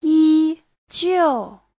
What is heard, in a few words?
"yi1 jiu4", Chinese; 一 ... 就...; vừa...liền…